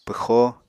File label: "pwxo", Apatani